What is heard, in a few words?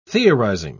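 "theorizing", British English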